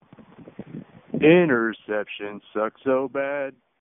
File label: audio message